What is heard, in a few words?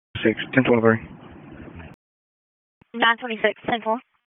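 Sheriff's Office Dispatch; WSO DISP 1; wake p25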